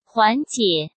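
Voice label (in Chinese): "缓解", Mandarin Chinese; chinese-flashcards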